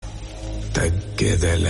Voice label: kannada dialogue; pushpa